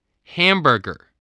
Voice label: "hamburger", spelling

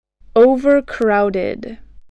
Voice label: "over-crowded", voice

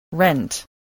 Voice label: "rent", English

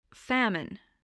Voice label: "famine", voice

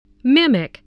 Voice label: "mimic", voice, spelling

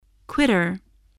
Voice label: "quitter", english

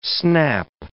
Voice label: "snap", English

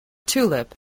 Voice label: "tulip", voice

